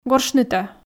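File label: "горшнытӧ", Komi, female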